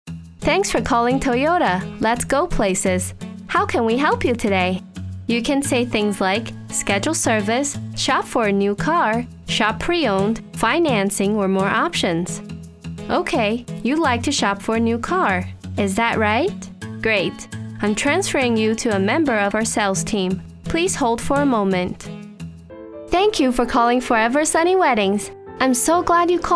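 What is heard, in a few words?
Chinese Mandarin English, female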